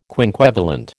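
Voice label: "quinquevalent", American English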